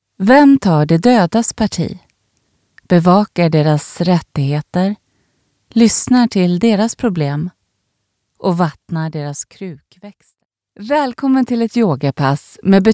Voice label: female swedish e-learning and narration compilation